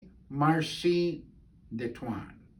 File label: Michif